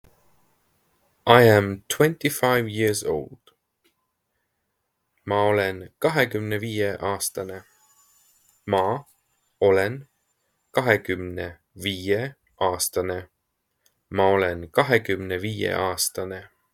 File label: I am 25 years old, Estonian phrasebook; the talking estonian phrasebook